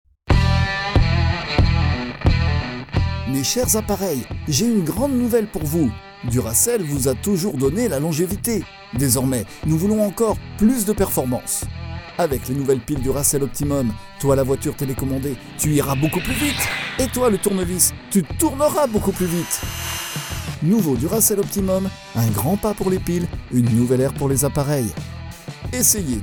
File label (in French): Voix-off, Français; Démo Publicité; Duracell